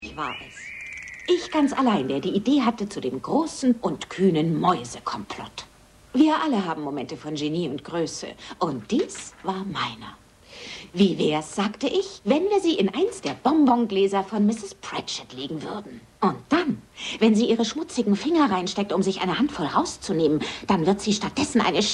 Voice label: hoerbuch

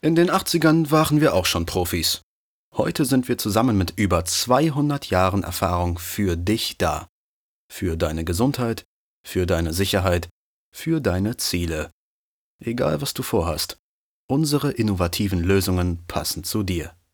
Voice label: Imagefilm

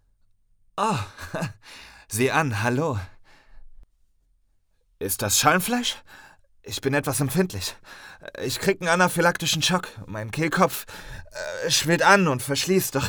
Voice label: flirtend; LOFT VOICES

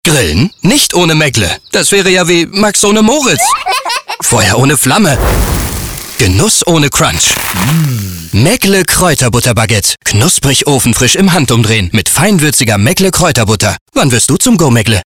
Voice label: Werbung Deutschland; Meggle Baguette

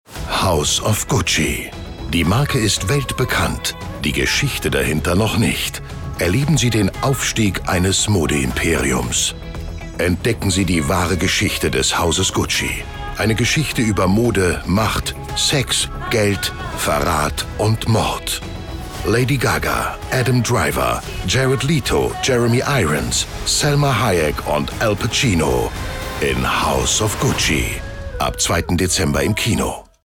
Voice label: OFF Trailer Funk; Gucci; GESCHICHTE

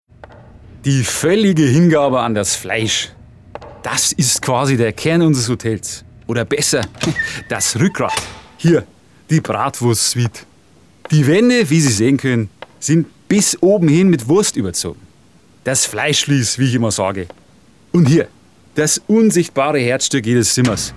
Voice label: Bayerisch; LOFT VOICES

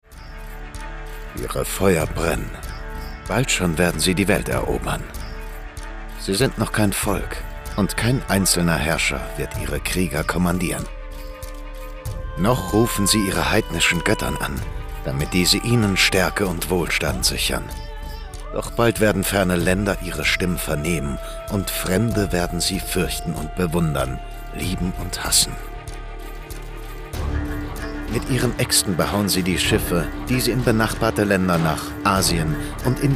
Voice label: Dokumentation Vikinger mit Musik Musik lauter

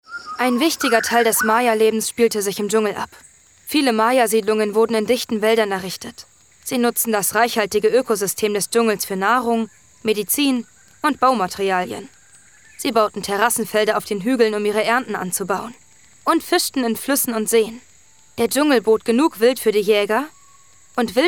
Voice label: Werbung Doku

